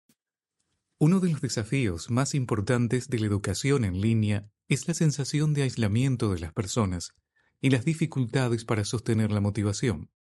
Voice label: elearning es neutro